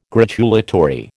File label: "gratulatory", American